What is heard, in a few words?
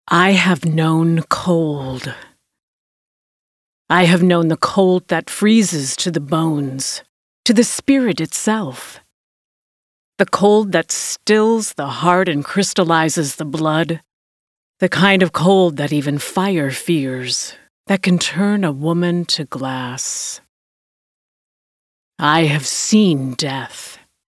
horror fiction 1st persone